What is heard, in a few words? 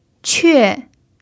"que4", pinyin